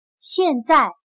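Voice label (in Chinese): "现在", Chinese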